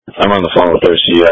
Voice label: Police Tactical Encrypted